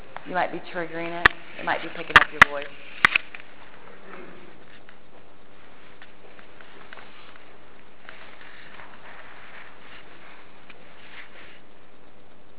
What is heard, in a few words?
male voice